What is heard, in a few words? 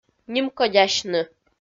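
"нимкодясьны", Komi-Zyrian, female; kpv audio dict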